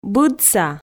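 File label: "быдса", Komi, female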